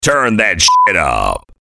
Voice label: Turn Up Dj Drop; Dj Drops 24 7

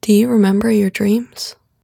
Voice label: Dream Female Spoken Word

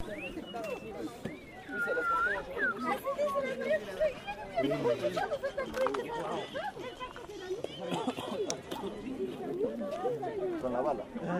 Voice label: Funny Old Lady

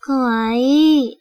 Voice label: "Cute", Japanese

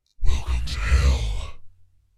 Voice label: Welcome To Hell Demon Voice

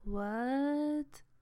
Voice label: Confused What

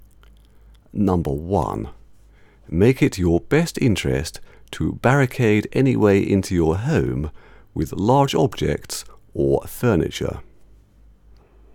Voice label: 50s Style Radio Announcement

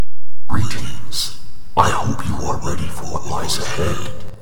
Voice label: Greeting Demon

